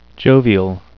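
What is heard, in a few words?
"jovial", English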